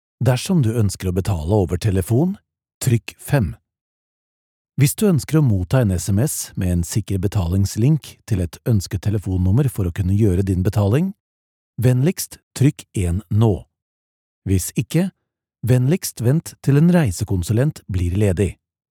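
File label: Norwegian, male, IVR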